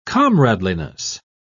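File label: "comradeliness", English, British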